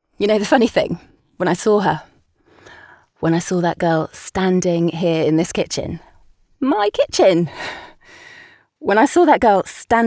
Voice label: Dramatic

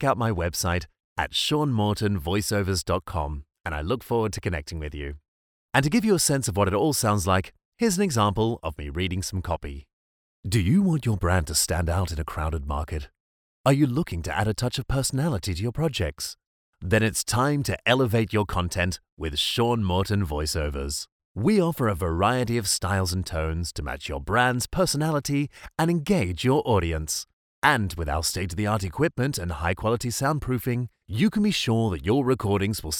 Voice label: RAW STUDIO

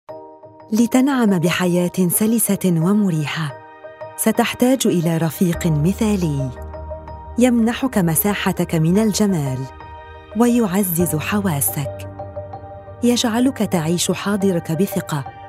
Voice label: Modern standered Arabic commercial

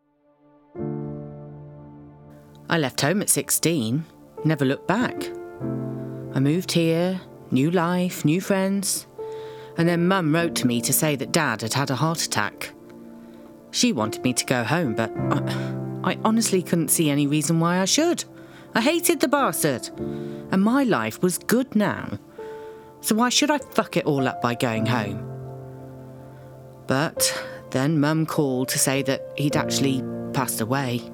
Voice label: Dramatic monologue

